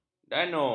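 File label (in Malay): "danur", Malay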